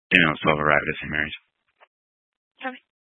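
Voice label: Fire Dispatch, scanner audio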